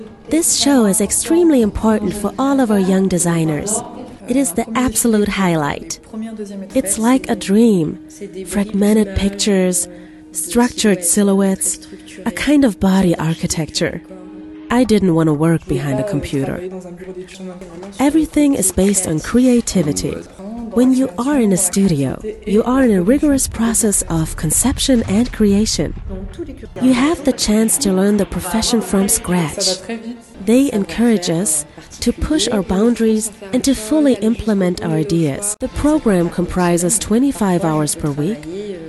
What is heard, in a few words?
american english female; Atelier Chardon Savard